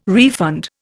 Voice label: "refund", Indian

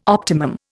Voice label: "optimum", Indian

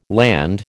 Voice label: "Land", American

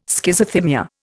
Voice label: "Schizothymia", Indian

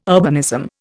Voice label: "urbanism", Indian

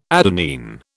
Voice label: "adenine", British

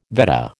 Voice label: "Vedda", American

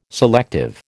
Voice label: "selective", American

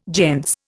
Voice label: "gentes", Indian

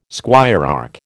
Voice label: "squirearch", American

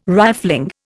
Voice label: "rifling", Indian

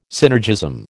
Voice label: "synergism", American

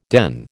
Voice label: "den", American

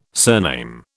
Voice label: "surname", British

